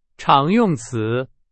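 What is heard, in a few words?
Chinese, example sentence; hsk2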